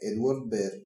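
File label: edward bear desc, Bulgarian; tuxpaint-stamps; animals; mammals; bears; toys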